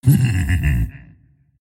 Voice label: happy; Dota 2; tiny